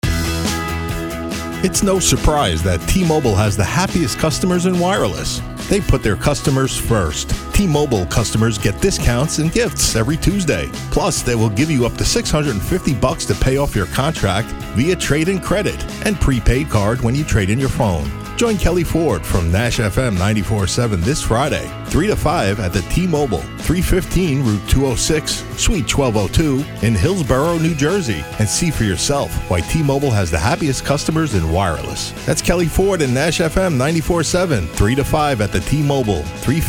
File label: Commercial